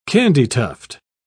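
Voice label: "candytuft", British English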